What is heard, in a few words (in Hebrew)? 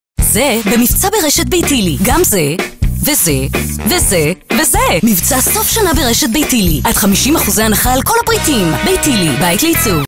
קריינות סרטון פרסום; ביתילי